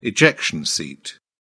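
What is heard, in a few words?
"ejection seat", British English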